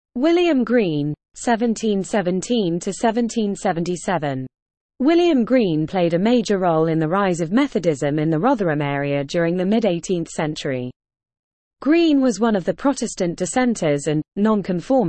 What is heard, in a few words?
Female; William Green